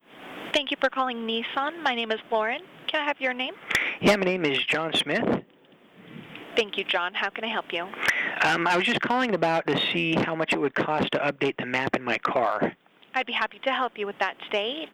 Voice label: Sales Call example